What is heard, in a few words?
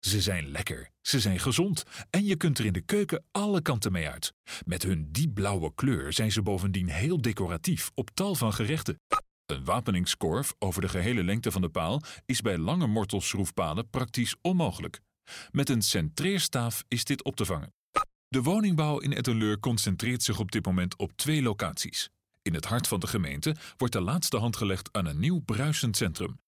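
Dutch male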